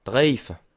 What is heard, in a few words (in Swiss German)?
"reife", Alsace